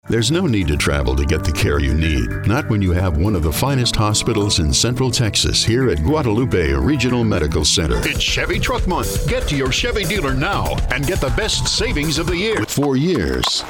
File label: Commercial